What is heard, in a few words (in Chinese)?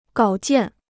"稿件", Chinese